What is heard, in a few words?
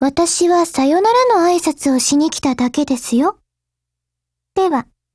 LOADING, JP